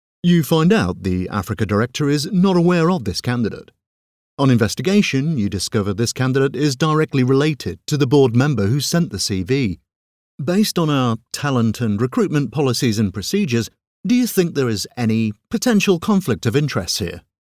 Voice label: voiceover narrative e-learning recruitment scenario